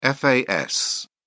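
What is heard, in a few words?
"fas", British English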